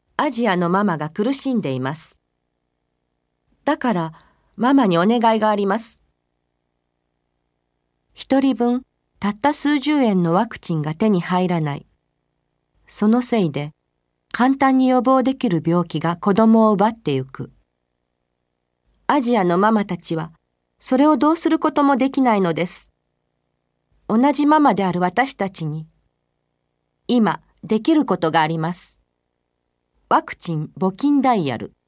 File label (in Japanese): 募金案内